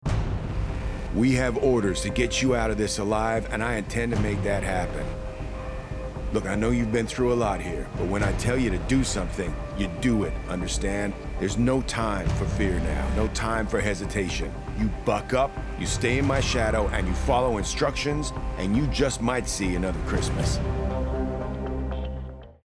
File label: US Soldier